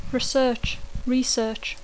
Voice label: "research", English; verb tr conj investigar; investigació; recerca